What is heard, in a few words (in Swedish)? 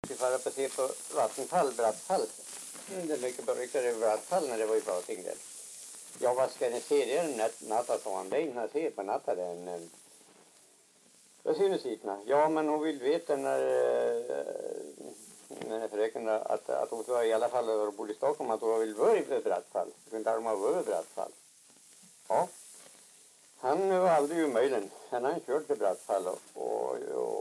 dialekter; Folkminnen; Svenska sagor